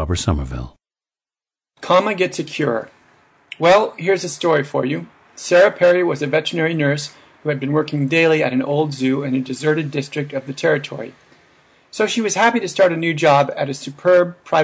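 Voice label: oregon, International Dialects of English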